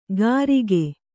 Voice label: "ಕರಿಕೆ", Kannada